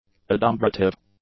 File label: "adumbrative", English; adjectives